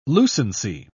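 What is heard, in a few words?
"lucency", British English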